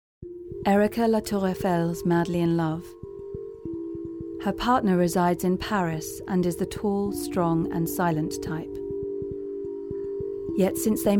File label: narration; Objectum Sexuals